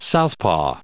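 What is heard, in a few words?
"southpaw", US English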